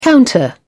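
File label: "counter", British English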